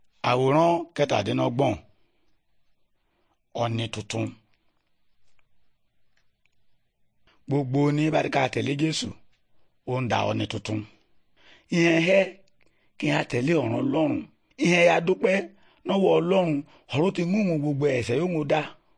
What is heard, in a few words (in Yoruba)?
good news yoruba, bible